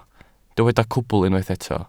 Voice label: "cwbl", welsh

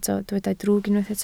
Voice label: "drwg", Welsh

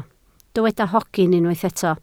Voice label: "hogyn", Welsh

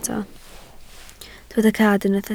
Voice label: "cad", Welsh

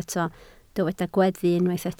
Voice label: "gweddu", Welsh

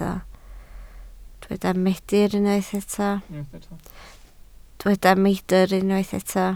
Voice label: "meidr", Welsh

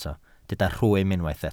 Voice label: "rhwym", Welsh

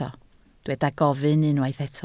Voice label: "gofyn", Welsh

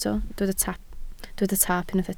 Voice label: "taap", Welsh

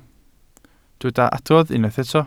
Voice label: "adrodd", Welsh

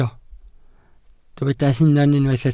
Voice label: "hunan", Welsh

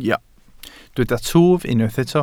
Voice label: "twf", Welsh

